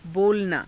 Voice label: "bolanaa", Hindi; [自動/他動]しゃベる，話す; बोलना